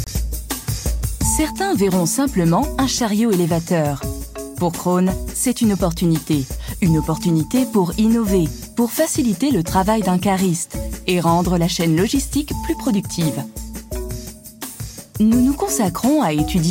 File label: french e-learning